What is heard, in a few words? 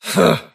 anger; davion; dota; dragon knight